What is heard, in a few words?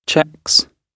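"checks", British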